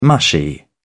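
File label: "mushy", British English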